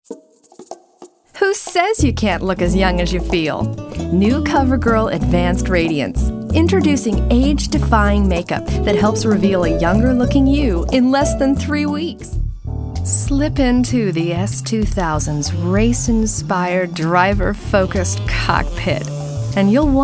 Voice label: english females